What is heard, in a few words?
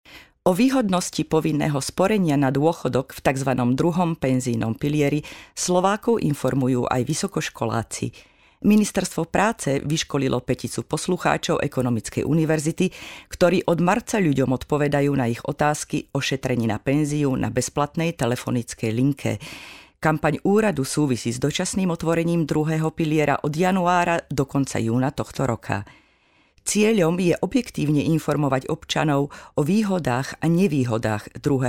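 slovak female narration; voice demos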